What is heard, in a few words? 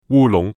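"烏龍", Cantonese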